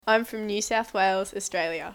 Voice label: Australian English, I'm from New South Wales, Australia.